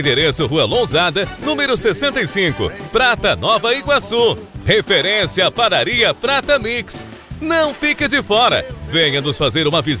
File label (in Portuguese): Spot Simples Projeto Sociocutural